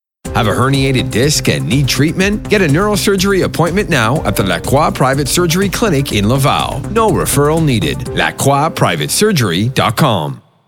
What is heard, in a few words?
male voice; Clinique Medicale Lacroix; Neurosurgery; radio et tele